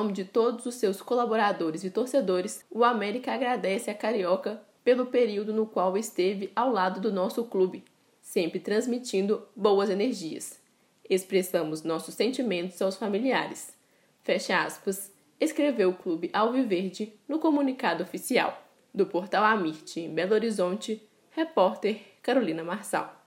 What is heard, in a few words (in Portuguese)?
Locutor